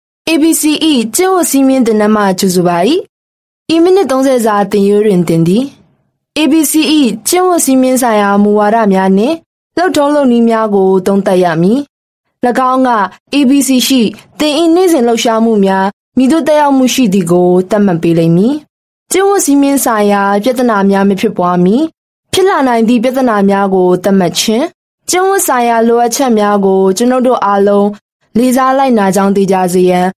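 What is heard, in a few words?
Burmese Female E-Learning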